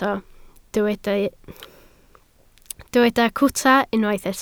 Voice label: "cwta", Welsh

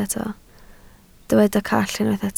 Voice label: "call", Welsh

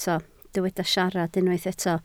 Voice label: "siarad", Welsh

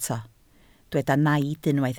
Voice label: "naid", Welsh

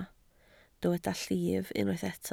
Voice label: "llif", Welsh

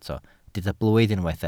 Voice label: "blwydd", welsh

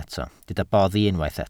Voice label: "boddi", Welsh

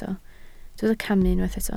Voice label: "camu", Welsh

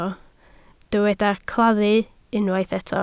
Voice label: "claddu", Welsh

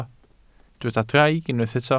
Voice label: "draig", Welsh

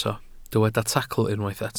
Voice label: "tacl", Welsh

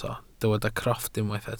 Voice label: "crofft", welsh

